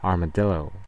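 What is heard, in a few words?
"armadillo", US English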